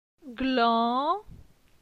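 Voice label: "Glan", French; phonetique; la syllabe an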